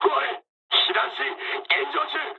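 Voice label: on fire mood med, speech japanese; War Thunder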